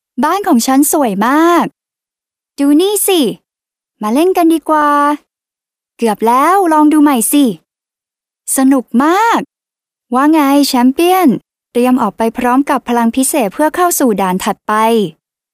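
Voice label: Thai female children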